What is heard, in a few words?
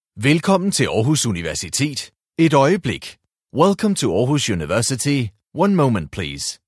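Danish voice message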